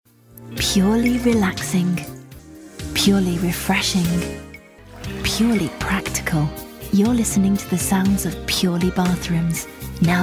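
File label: British English, female, voice over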